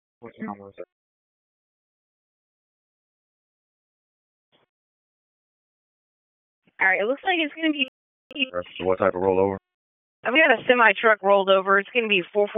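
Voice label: Police Southwest District Dispatch; Raleigh; wake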